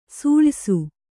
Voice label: "ಸೂಳಯ್ಸು", Kannada